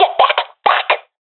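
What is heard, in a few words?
request fallback, professional female; vo; agents; Source Model Packs; Counter Strike Model Pack; CS2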